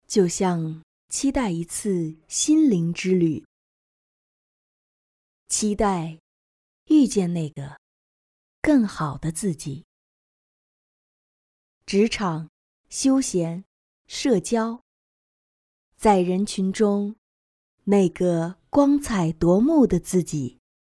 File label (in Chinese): 女声深沉旁白